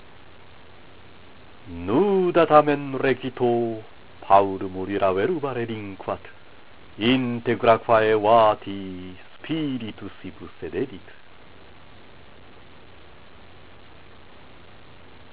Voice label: Recitatio, latin